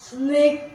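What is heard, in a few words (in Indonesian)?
"Ular", Indonesian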